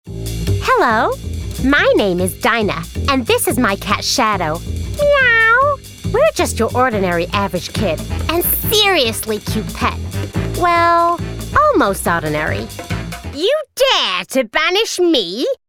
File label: Cartoon